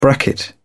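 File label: "bracket", English, British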